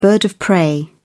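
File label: "bird of prey", British English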